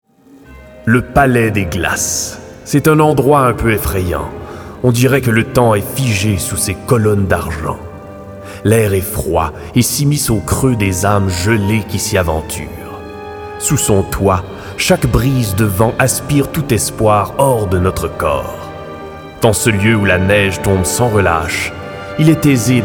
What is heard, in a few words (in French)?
narrateur personnage